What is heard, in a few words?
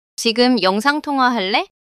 neutral